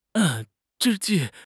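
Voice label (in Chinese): "之际", Chinese; HSK6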